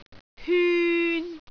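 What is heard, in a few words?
"hyun3", Cantonese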